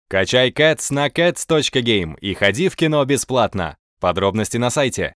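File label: CATS GAME Russian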